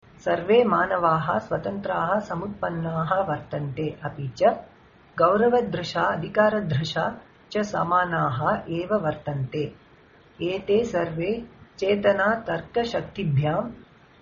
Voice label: Sanskrit